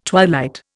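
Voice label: "twilight", Indian English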